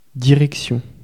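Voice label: "direction", French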